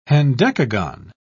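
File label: "hendecagon", British English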